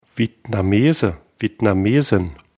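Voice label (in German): "vietnamese", German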